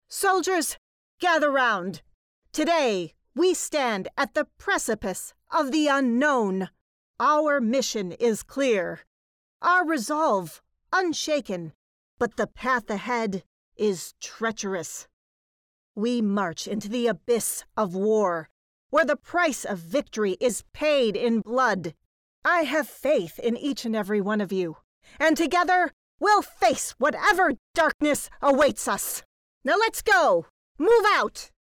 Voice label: Character Commander